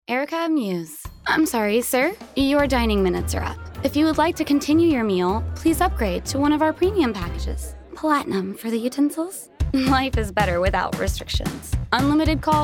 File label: commercial